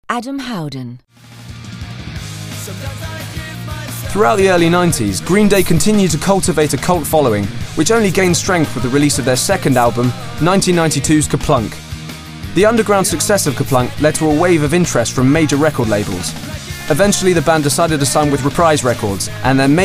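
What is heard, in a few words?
narration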